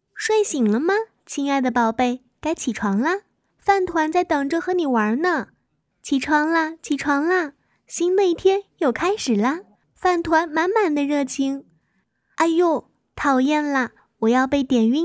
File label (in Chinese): 角色稚嫩甜美干净小女孩童音智能语音